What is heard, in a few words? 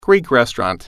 "greek restaurant", French